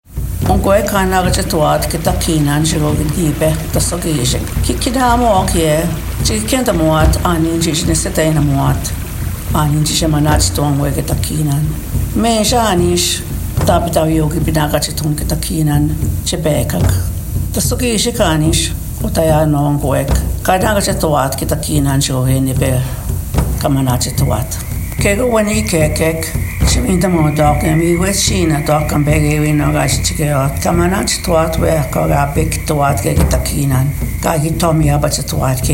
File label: Ojibwe